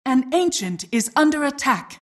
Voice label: announcer ancient attack

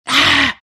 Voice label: temp pain; templar assassin

